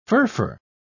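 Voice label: "furfur", British English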